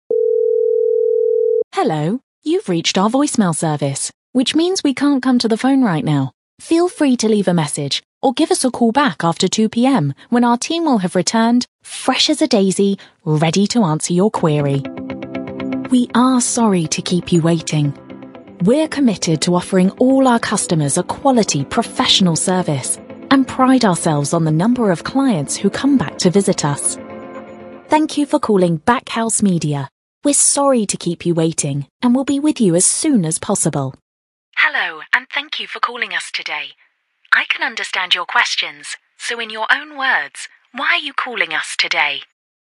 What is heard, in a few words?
telephone